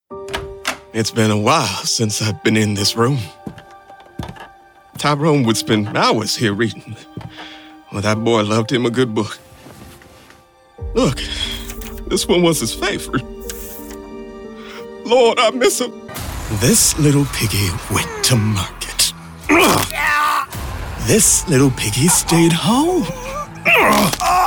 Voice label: Character; voice